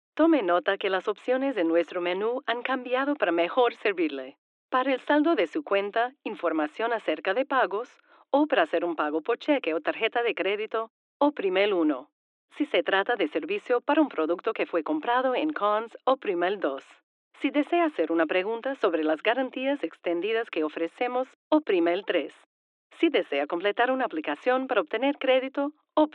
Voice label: Spanish Phone Script